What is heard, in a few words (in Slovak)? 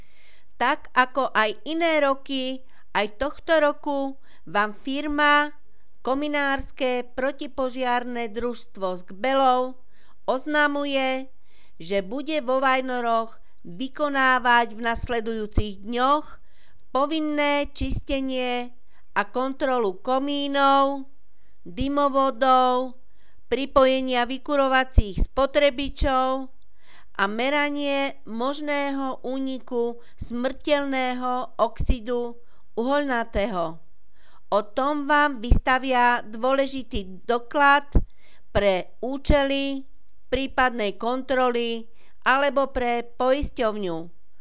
Hlásenie miestneho rozhlasu; Kominárske družstvo Gbely